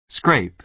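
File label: "scrape", American English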